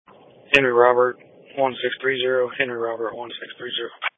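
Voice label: Police Patrol (Dispatch), Audio Call; New Bern Police Patrol 1 (Dispatch) (TG 200)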